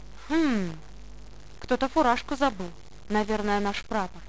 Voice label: monologue; svencoop; paranoia; medic

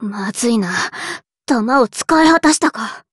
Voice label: chitchat, voice commands Anime Loli; svencoop

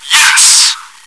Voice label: cheer; svencoop

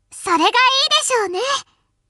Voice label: Yes, voice commands Anime Loli; svencoop

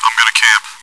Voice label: chitchat; svencoop

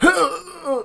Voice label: fall pain; wizardwars

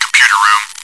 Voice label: chitchat, bot, voice commands; svencoop

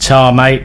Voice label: Thanks, male; svencoop

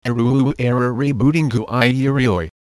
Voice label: cyborg rebooting; svencoop; outerspace

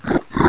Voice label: friendly idle; svencoop; portal house

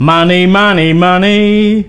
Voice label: Drop Cash, voice commands, male; svencoop

